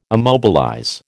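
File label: "immobilize", American English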